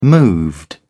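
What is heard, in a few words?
"moved", British English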